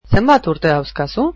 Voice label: How old are you, basque